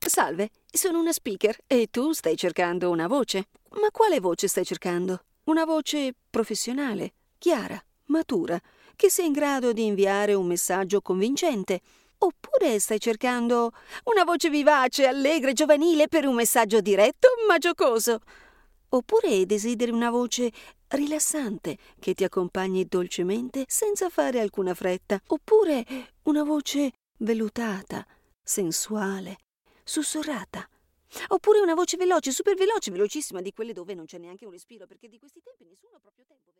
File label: Different voices